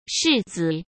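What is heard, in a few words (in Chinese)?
"柿子", Mandarin Chinese; hsk; chinese-flashcards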